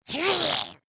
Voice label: Pain, Grineer Ghoul; Warframe; Grineer Ghoul Expired; Battle Barks